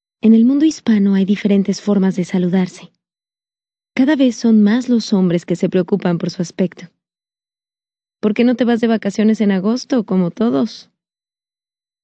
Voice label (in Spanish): Spanish South America, F